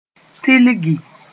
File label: "tilgi", Moore